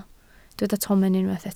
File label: "tomen", Welsh